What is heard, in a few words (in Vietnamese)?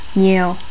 "nhiê`u", Vietnamese